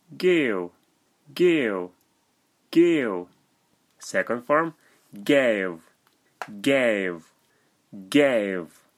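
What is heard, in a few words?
"Gave", English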